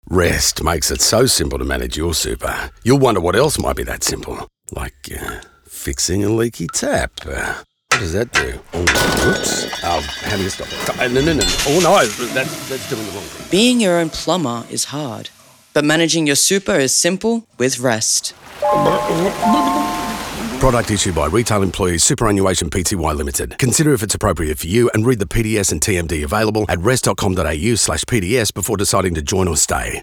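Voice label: funny advertisement; SUPER PLUMBER; BROADCAST RADIO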